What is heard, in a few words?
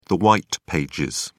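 "white pages", British English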